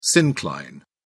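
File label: "syncline", British English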